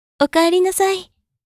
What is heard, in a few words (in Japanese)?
お帰りなさい, システムボイス; Windows等向けシステムボイス